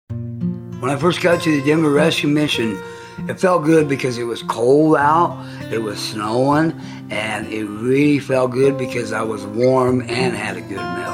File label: male